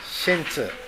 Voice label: "sentu", minamiyamagata